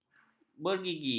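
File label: "bergigi", Malay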